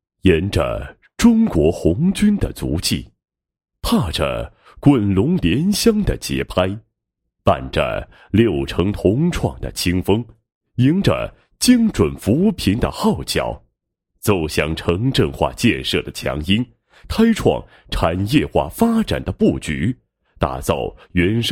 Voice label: 男-宣传片; 李家河综合宣传片

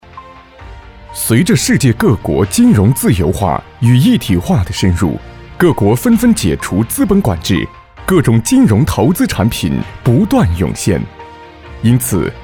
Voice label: 男, 专题金融公司

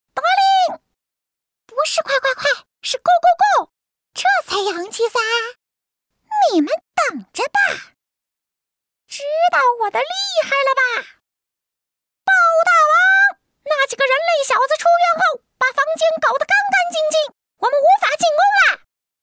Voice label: 女 模仿 蚊子, 国语女声配音

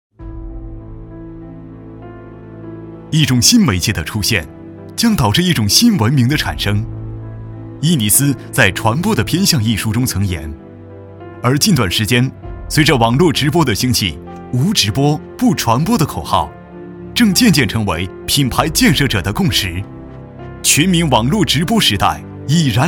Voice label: 专题 人物介绍, 国语男声配音

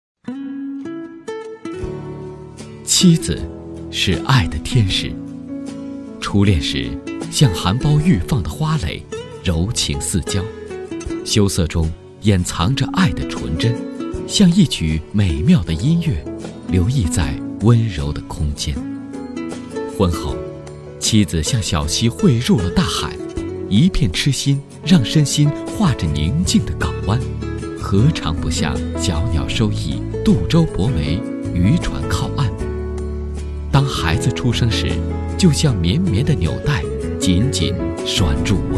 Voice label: 国语男声配音, 纪录片; 我们的选择《家有贤妻》; 全能录音

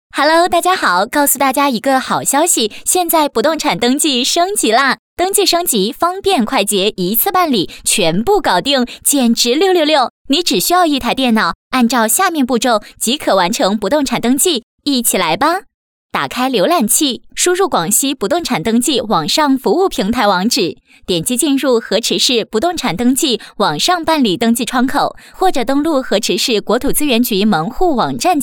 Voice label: 女 活跃 不动产登记

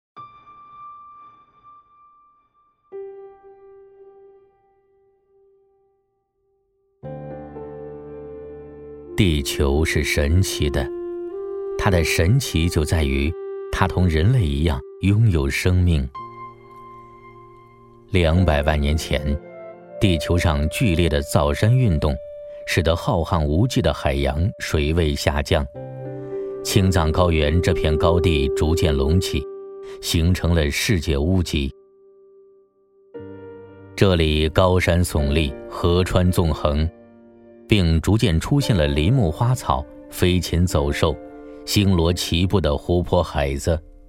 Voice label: 男 沉稳历史 纪录片; 俯瞰青海

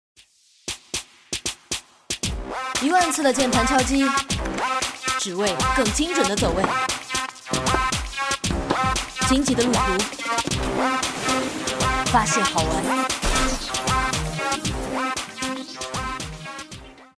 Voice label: 广告, 酷帅射击女声

